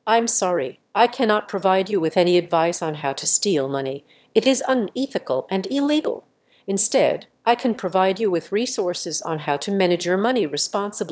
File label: emotion; SpeechGPT